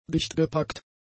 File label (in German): "dichtgepackt", german; adjectives